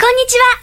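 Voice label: "konichiwa", Japanese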